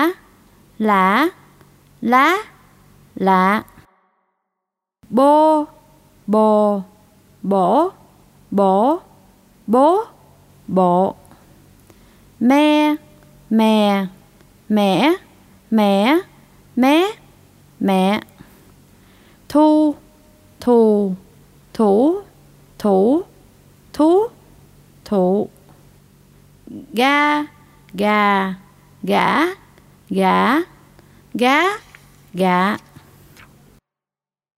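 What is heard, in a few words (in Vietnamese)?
Ho Chi Minh City thanh dieu tieng viet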